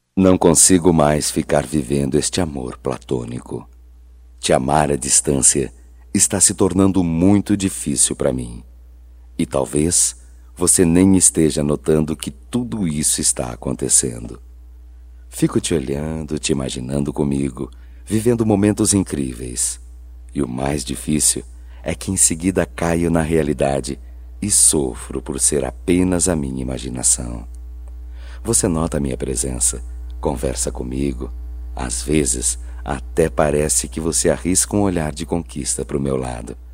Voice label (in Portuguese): Declaração Masculino, Só Voz; Telemensagens; Telemensagens 2017